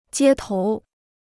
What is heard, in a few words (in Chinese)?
"街头", Chinese